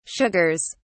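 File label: "sugars", British English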